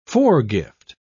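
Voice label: "foregift", en, uk British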